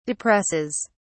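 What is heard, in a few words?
"depresses", English, British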